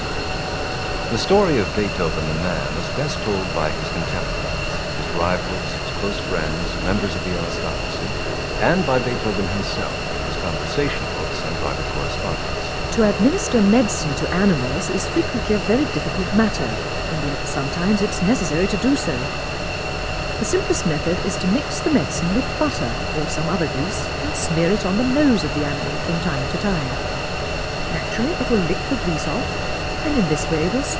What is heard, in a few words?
f16 Noisy Speech